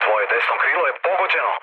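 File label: Serbian right wing mood low, speech; War Thunder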